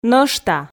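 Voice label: "нӧшта", Komi, female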